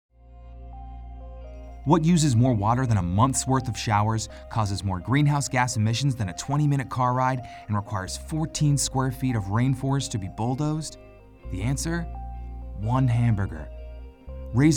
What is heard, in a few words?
vegan earth day radio psa; peta